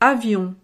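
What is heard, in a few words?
"avion", French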